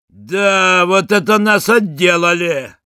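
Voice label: Sniper jeers, Russian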